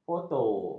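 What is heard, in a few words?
"foto", Malay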